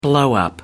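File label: "blowup", British English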